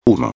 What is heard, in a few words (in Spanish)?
"humo", espanhol; palavra do dia